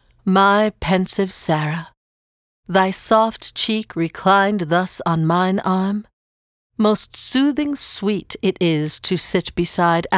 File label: the aeolian harp read by; the aeolian harp by samuel taylor coleridge